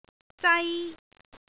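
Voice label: "zai1", Cantonese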